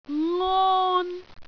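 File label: "ngon1", Cantonese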